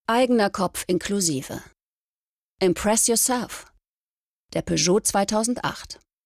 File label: Commercial Peugeot 2008; LOFT VOICES